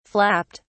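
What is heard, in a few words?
"flapped", British English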